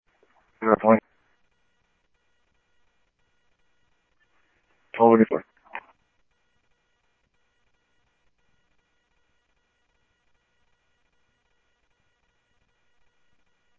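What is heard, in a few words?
police department, scanner audio trunk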